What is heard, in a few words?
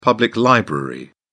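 "public library", British English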